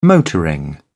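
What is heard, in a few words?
"motoring", British English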